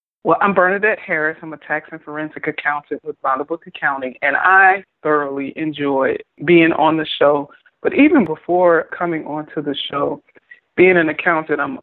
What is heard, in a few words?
Testimonial; Impact Makers Radio